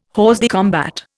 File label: "hors de combat", Indian